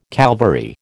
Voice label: "Calvary", American